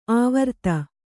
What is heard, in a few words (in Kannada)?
"ಆವರ್ತ", Kannada